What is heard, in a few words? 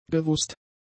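"gewußt", German; adjectives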